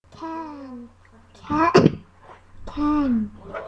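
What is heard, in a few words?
"can", spoken word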